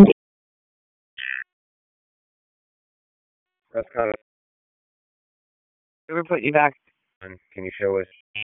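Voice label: EMS Dispatch, p25; wake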